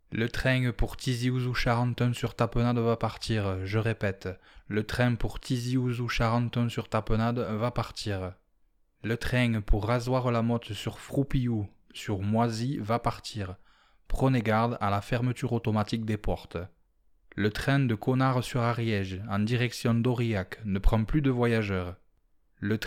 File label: French, Male